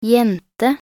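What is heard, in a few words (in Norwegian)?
"jente" Norwegian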